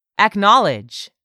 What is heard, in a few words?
"acknowledge", English; 3000 words